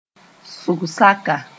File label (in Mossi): "sʋg-zaka", Moore